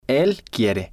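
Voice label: "el quiere", Spanish, male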